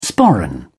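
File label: "sporran", British English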